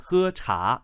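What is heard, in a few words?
"he1 cha2", Chinese, male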